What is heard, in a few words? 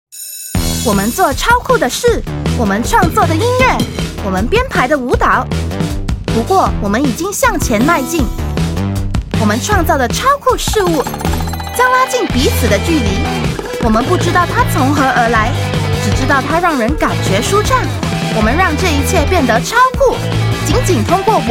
Dutch VO